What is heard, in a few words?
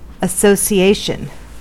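"association", American English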